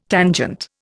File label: "tangent", Indian